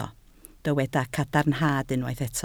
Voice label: "cadarnhad", Welsh